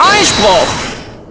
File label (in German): "Objection", German; Klavier